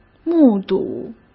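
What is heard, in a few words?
"目睹", Mandarin Chinese; chinese flashcards; hsk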